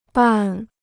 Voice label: "伴", Chinese

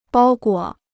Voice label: "包裹", Chinese

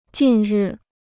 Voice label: "近日", Chinese

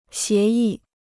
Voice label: "协议", Chinese

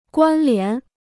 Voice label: "关联", Chinese

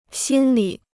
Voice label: "心理", Chinese

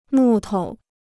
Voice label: "木头", Chinese

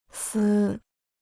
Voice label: "丝", Chinese

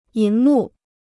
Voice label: "银幕", Chinese

